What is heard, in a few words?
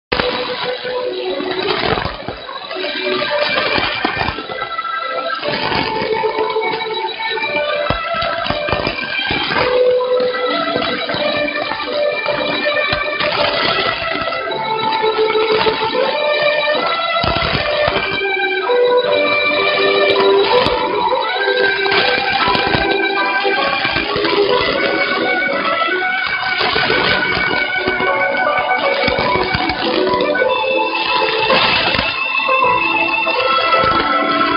fessenden reenactment 486 khz